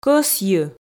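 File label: "кӧсйы", Komi, female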